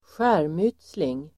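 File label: "skärmytsling", Swedish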